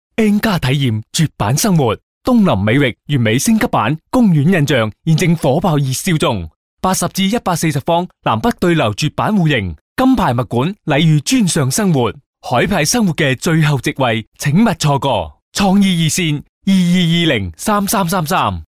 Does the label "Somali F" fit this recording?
No